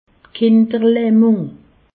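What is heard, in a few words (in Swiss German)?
"kinderlahmung", Alsatian; medecine; polyomyélite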